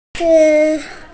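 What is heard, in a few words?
"chi1", Mandarin Chinese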